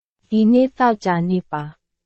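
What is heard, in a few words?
Burmese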